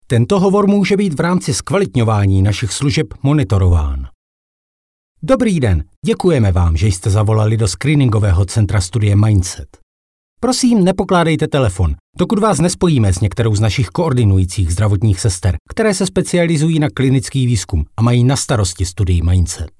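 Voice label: corporativo, Czech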